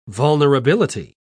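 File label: "vulnerability", British English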